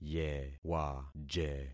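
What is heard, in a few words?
"yewaje", concatenated syllables; Affixing experiment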